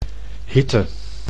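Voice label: "hitte", Dutch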